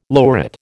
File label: "lurid", American